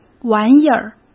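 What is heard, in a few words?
"玩意儿", Mandarin Chinese; hsk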